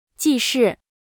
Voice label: "既是", mandarin

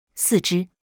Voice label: "四只", mandarin

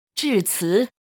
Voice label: "致词", mandarin

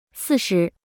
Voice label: "四十", mandarin

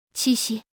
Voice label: "七夕", mandarin

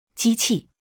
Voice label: "机器", mandarin

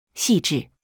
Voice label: "细致", mandarin

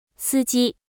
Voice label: "司机", mandarin

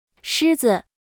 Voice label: "狮子", mandarin

